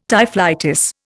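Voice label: "typhlitis", Indian English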